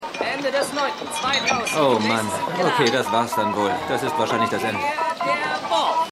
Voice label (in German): Sportkommentator; AusgerechnetAlaska